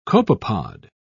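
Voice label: "copepod", British English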